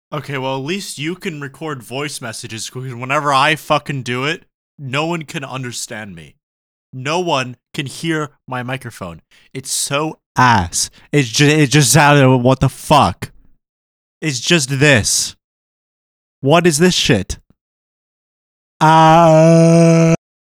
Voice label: voice message